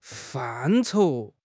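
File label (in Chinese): "范畴", Chinese; HSK6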